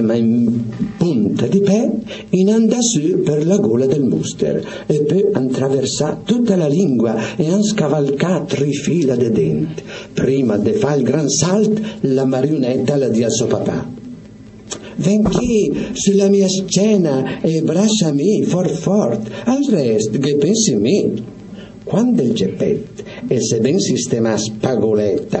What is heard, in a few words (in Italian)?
Pinocchio Milanese